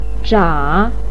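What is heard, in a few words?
"zha3", Mandarin pinyin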